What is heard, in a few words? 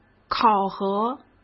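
"考核", Mandarin Chinese; chinese-flashcards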